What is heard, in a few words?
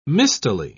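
"mistily", British English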